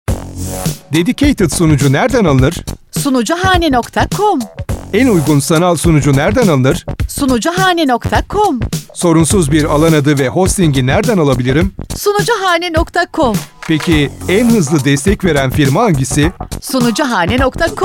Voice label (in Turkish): reklam